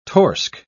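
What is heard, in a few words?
"torsk", English, British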